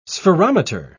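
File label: "spherometer", British English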